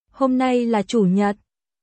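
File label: Vietnamese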